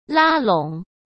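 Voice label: "拉拢", Mandarin Chinese; chinese-flashcards; hsk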